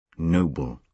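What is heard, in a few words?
"noble", British English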